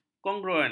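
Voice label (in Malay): "kongruen", Malay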